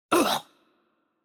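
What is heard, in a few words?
Hurt Light, Chinese; bloom minigame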